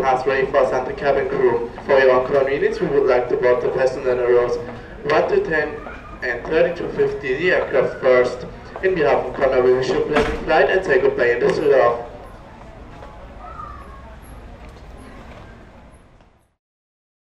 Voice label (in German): Flughafen-Ansagen, nach Teneriffa; Condor